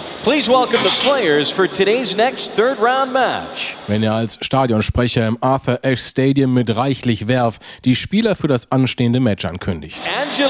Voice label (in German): Die Stimme der US Open